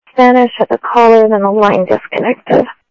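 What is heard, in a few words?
Sheriff East County, scanner audio; South Scottsdale; MCSO - EAST Sheriff-EastCnty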